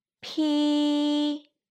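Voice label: "pi", Mandarin pinyin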